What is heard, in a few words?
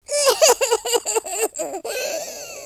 lose loop, American Wrestler